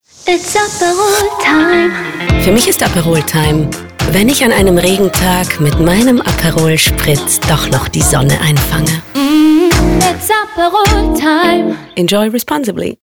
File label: Spot; Aperol